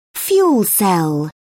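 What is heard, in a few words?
"fuel cell", British English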